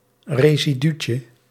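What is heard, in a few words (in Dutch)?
"residuutje", Dutch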